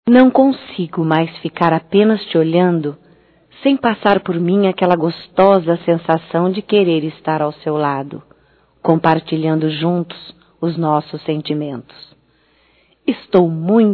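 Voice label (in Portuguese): female, Telemensagens Aniversarios; ANIV PAQUERA(F)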